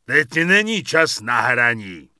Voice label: Peon Pissed; wowpreklad; czwow

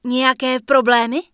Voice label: Human Female potion cooldown; Error Messages; wowpreklad; czwow; FemaleErrorMessages

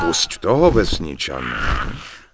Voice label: Human Male No, Human Vocal Male; wowpreklad; czwow

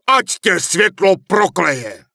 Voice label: Human Male Official Pissed; NPC; wowpreklad; czwow

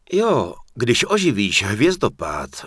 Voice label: Human Male ability cooldown; Error Messages; wowpreklad; czwow; MaleErrorMessages

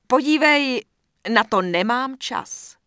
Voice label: Night Elf Female Standard NPC Pissed; wowpreklad; czwow

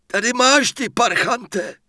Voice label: Mortar Team Pissed; wowpreklad; czwow

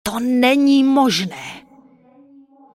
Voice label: Night Elf Female Sentinel NPC Farewell; wowpreklad; czwow

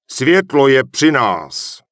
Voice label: Human Male Official NPC Greeting; wowpreklad; czwow

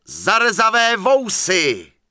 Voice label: Human Male Standard NPC Farewell; wowpreklad; czwow

